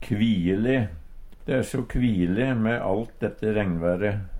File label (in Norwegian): kviele, Numedalsmål; De e so kviele me alt dete regnvære.; ein gruer seg til, er kjedeleg; Adverb